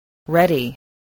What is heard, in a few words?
"ready", english